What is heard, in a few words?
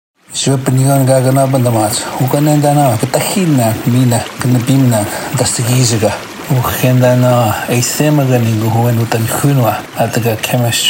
Oji-Cree